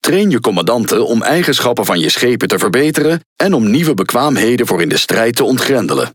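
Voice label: Speech Tutorial, Dutch; World Of Warships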